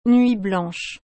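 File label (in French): "nuit blanche", French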